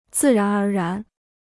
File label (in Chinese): "自然而然", Chinese